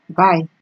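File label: "buy", Nigerian Pidgin, female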